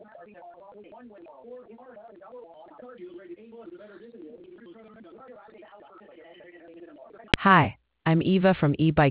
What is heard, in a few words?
conversation